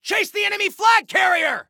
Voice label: Attack Chase, Soldier Male; Soldier Male 01

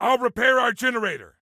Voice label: SelfRepair Generator, Soldier Male; Soldier Male 03

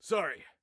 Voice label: Team Sorry, Soldier Male; Soldier Male 02

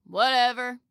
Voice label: Respond Any Time, Female

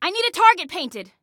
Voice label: Target Need, Female; Female 02

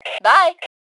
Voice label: Global Bye, Female; T2 Female 03

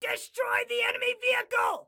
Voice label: Attack Vehicle, Excited Guy

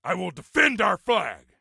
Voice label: Self Defend Flag, Soldier Male; Soldier Male 03

